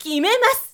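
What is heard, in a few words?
"kimemasu", woman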